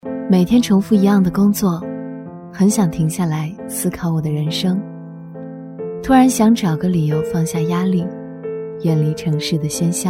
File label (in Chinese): 女 唯美独白